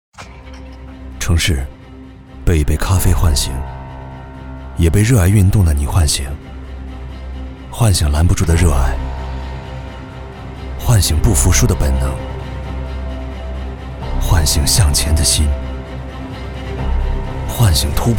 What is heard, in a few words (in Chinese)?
男 旁白 走心; 成都万科全民运动季走心